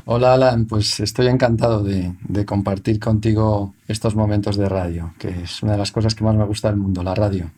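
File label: Echo Remover processed; CrumplePop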